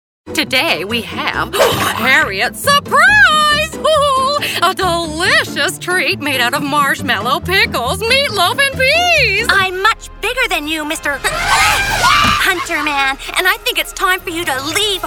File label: Character, characters female